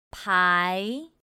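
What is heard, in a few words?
"pái", Mandarin Chinese; pinyin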